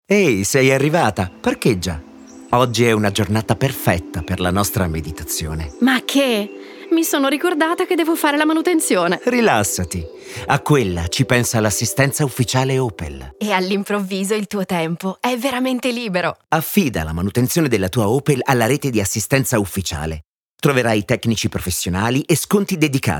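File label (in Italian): meditazione; opel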